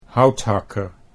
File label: "houthakker", Dutch